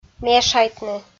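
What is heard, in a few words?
"мешайтны", Komi, female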